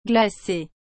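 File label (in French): "glacée", French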